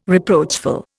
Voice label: "reproachful", Indian